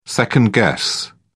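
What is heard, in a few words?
"second-guess", British English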